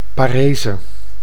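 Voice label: "parese", Dutch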